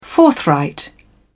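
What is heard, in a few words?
"forthright", English, British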